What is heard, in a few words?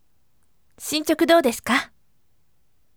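voice, firm; shinchoku dou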